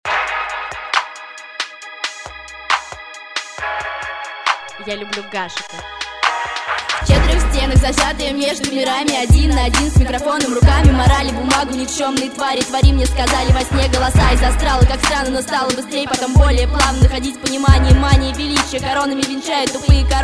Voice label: female battle

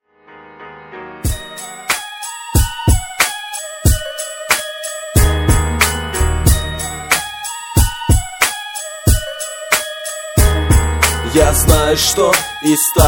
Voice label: rap, rus battle

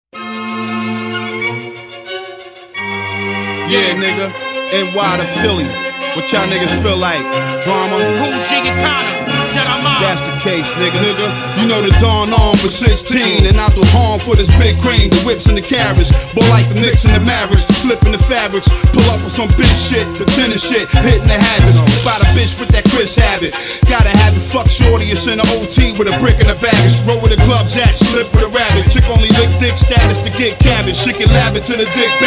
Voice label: rap

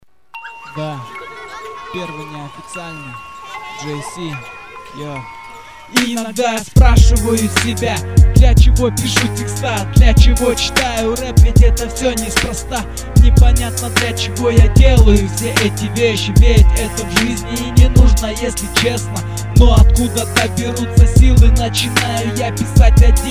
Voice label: rap

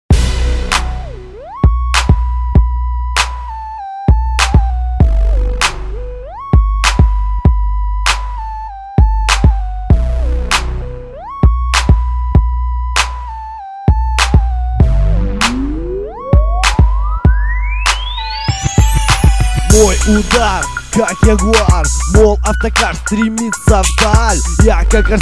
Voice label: rap

